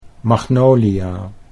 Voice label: "magnolia", Dutch